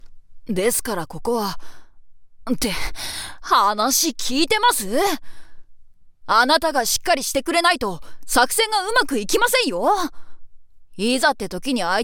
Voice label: boy